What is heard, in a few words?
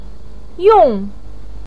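"yong", Mandarin pinyin, fourth tone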